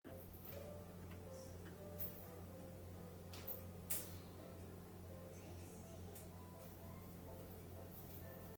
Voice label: Voice message